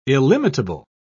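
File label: "illimitable", British English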